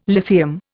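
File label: "lithium", Indian English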